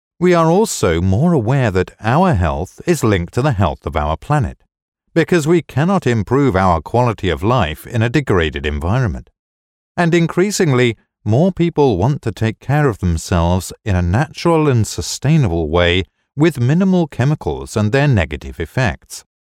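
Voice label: english voiceover locutor ingles, Locutor británico; Locutores británicos; Locutores de Inglaterra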